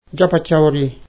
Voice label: "держаться", Nanai

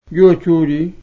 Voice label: "обменивать", nanai

